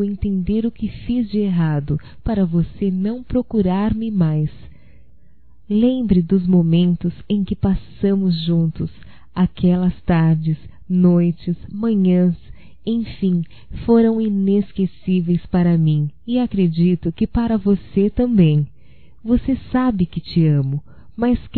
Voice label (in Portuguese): SAUDADES(F), Românticas fem